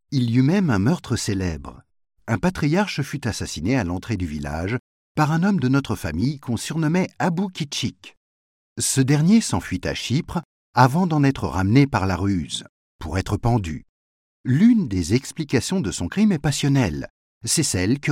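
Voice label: French Narrative; GoLocalise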